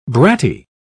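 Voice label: "bratty", British English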